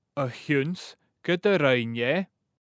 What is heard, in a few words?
a chionn ’s gun do rinn e, Gaelic; because he made …; Painting and papering